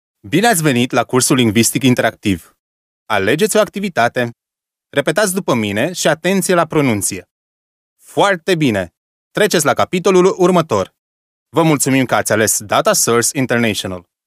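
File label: Romanian male corporate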